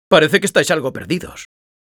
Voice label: Spy taunts, es